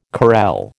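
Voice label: "carrel", American English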